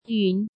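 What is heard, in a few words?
"Yún", Chinese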